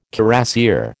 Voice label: "cuirassier", American